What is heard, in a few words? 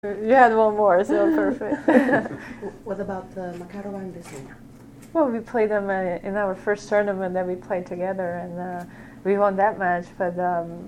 in inglese; Pennetta; Hingis; US Open